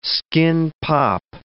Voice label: "skin-pop", words

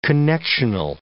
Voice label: "connectional", English

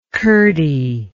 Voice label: "curdy", english

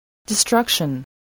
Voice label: "destruction", english spelling

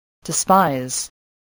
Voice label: "despise", English

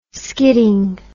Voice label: "skidding", words

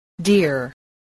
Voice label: "dear", English

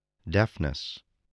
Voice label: "deafness", English

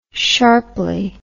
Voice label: "sharply", English

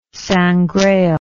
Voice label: "sangraal", English

